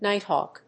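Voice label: "nighthawk", English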